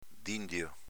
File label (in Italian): "dindio", dialetto veneto